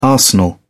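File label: "arsenal", British English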